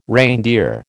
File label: "reindeer", American